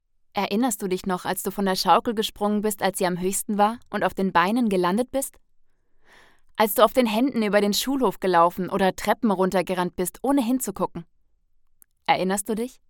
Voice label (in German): Imagefilm; Arca